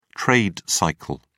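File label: "trade cycle", British English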